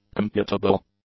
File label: "computable", English; adjectives